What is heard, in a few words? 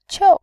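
"qiu", Mandarin Chinese, fourth tone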